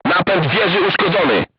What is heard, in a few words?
voice message horizontal aiming drive damaged, polish